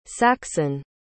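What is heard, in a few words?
"saxan", English word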